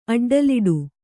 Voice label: "ಅಡ್ಡಲಿಡು", Kannada; aḍḍaliḍu